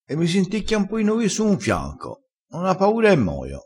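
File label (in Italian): "senticchia", dialettale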